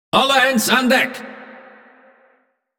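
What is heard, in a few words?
Speech, Dutch; Lets Battle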